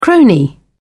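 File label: "crony", British English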